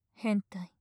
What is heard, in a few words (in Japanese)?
"変態" 近 左, ASMR